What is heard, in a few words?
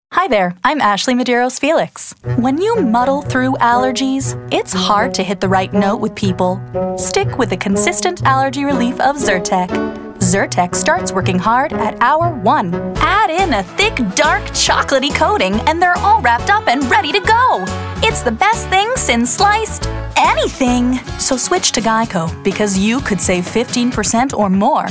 Commercial